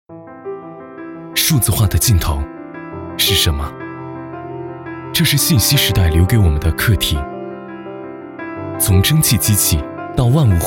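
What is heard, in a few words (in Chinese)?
男国大音-科技感; 汉云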